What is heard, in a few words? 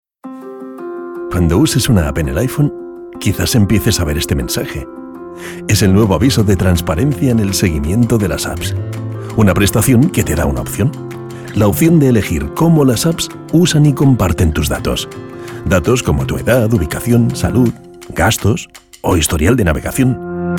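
Spanish European Corporate; GoLocalise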